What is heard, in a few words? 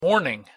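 "morning", English; pronunciation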